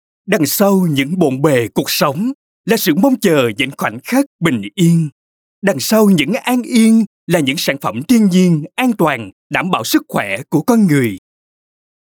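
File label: Vietnamese South Male; Lassante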